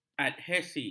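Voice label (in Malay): "adhesi", Malay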